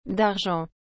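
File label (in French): "d’argent", French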